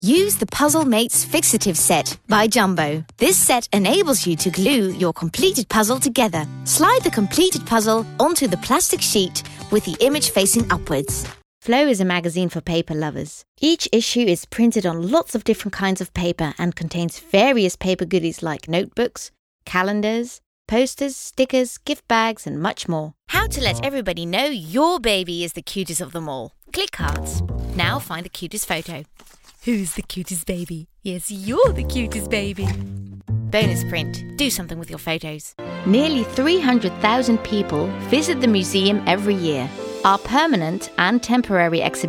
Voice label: Voicedemo English